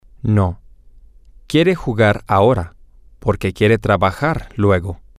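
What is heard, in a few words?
No she wants to play now because she ha, Spanish, male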